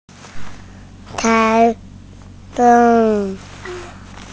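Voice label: "tai2 feng1", Mandarin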